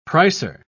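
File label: "pricer", British English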